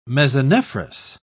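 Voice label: "mesonephros", British English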